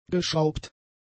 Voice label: "geschraubt", German; adjectives